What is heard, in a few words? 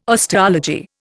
"osteology", Indian